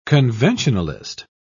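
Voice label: "conventionalist", British English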